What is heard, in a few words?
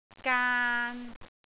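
"gaan3", Cantonese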